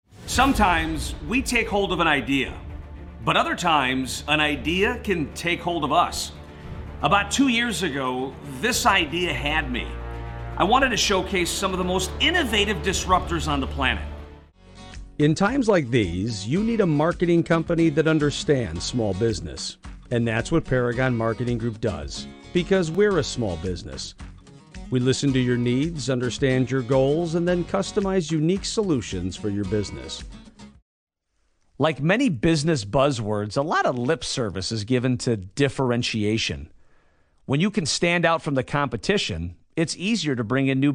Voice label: voiceover